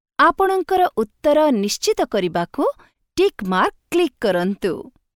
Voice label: Click Check VO, Odiya